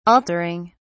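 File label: "altering", British English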